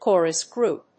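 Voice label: "Chorus Group", English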